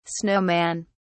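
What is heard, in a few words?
"snow man", British English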